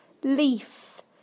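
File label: "leaf", British English; PictureDictionary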